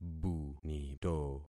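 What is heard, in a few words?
"bunito", concatenated syllables; Affixing experiment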